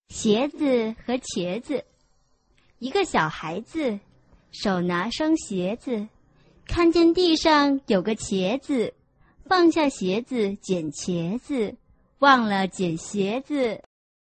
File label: chinese tongue twister